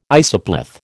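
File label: "isopleth", American English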